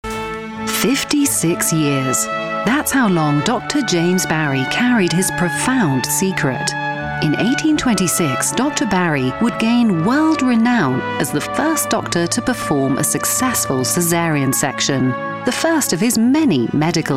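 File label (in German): British English, Freundlich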